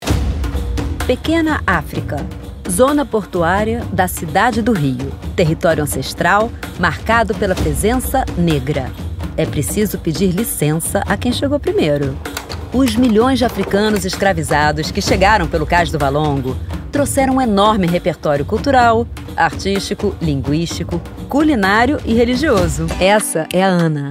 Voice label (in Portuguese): NARRADORA CONTADORA DE HISTÓRIAS